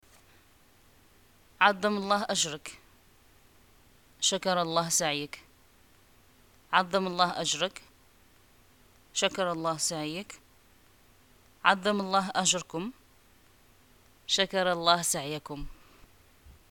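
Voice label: greeting death, Moroccan Arabic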